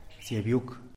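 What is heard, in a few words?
"psiabyuk" type of eagle, Zapotec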